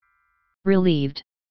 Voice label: "Relieved", English